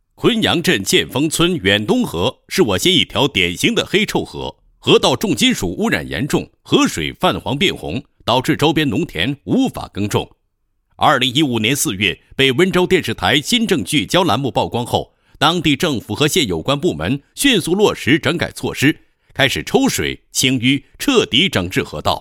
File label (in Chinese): 男, 宣传片; 新政聚焦追踪上童河整改